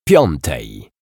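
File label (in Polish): "piątej", Polish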